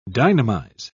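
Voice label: "dynamize", British English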